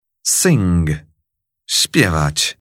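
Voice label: "sing", English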